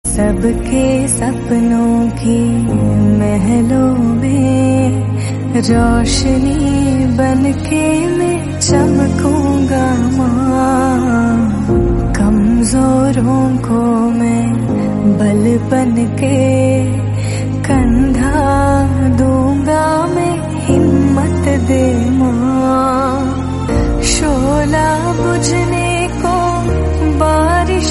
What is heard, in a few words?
hindi dubbed